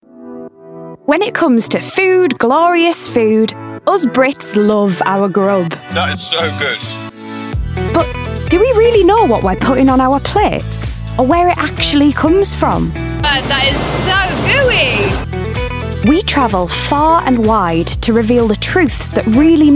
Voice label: narration